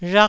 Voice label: "rhak1", taiwanese